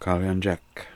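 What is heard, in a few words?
"Kaliandjek", pronunciations; Fourth Way; Beelzebub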